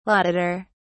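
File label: "laudator", British English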